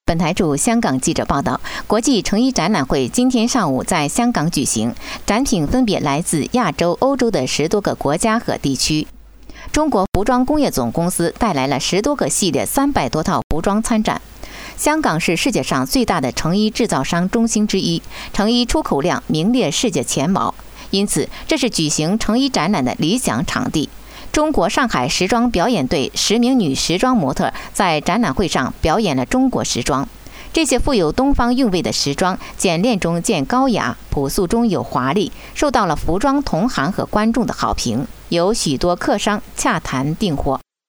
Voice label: 经济新闻播报

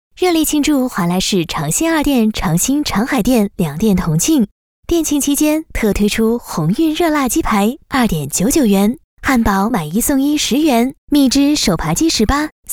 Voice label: 促销; 华莱士

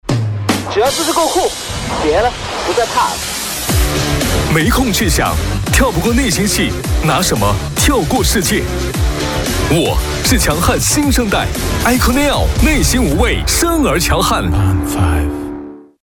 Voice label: 活力时尚男声; 手机

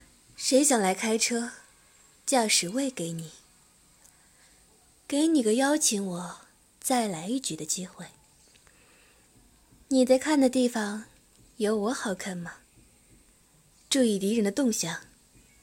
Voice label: 女王语音包; 吃鸡女王语音包

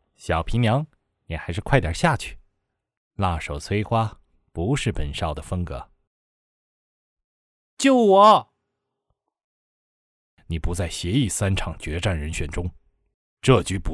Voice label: 男播; 至尊武魂

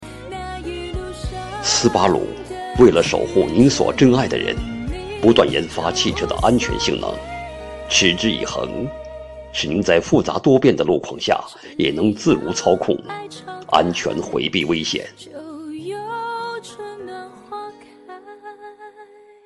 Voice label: 旁白; 斯巴鲁

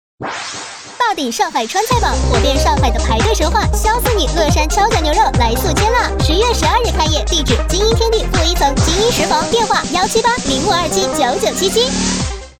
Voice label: 促销激情

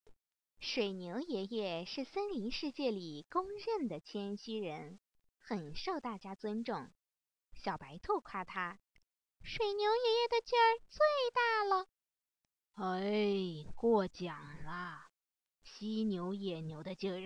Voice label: 寓言故事 多种角色

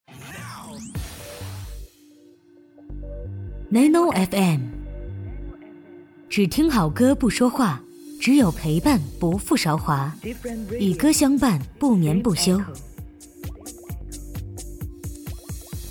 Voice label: 电台质感女声

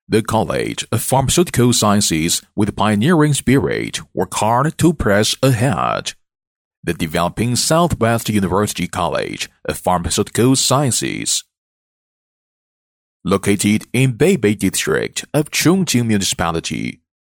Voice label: 美式 英语宣传片; 西南大学

